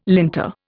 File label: "linter", Indian